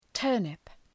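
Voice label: "turnip", English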